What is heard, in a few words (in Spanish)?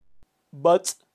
"batz", kaqchikel, pronunciacion de animales